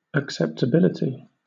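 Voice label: "acceptability", British English; pronunciation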